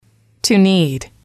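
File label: "to need", English, female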